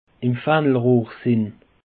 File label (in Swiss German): "fahnelrohr", alsace; peche